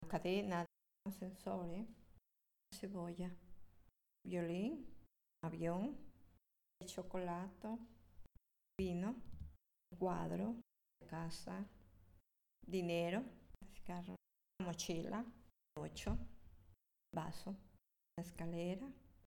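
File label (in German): Zusammengefugte Worter Schnell Spanisch, female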